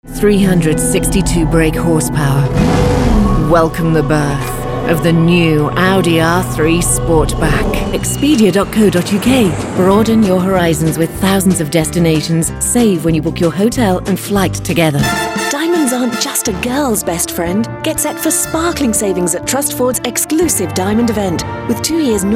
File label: Commercial